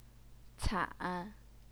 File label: "can3", Chinese pinyin